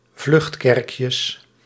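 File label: "vluchtkerkjes", Dutch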